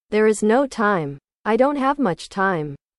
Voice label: english

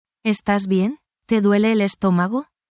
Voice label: spanish, spanish phrases

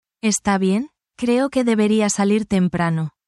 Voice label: spanish, spanish phrases

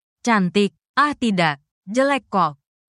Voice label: indonesian phrases

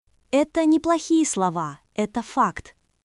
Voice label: Russian, russian phrases insults; ロシア語のネガティブなフレーズ

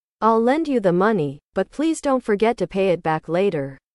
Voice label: english

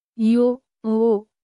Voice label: Nepali, basic phrases

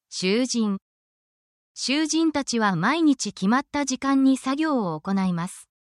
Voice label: essential japanese phrases for crime incidents

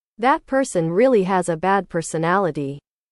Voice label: english

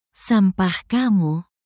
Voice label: indonesia, negative phrases

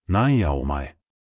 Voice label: anime

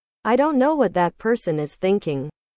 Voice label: english

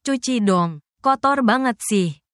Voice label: indonesian phrases

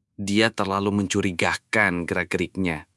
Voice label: indonesia, indonesian negative phrases

